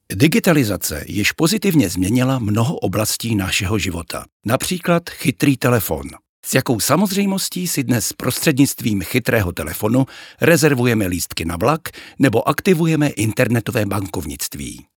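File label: Czech, male, narration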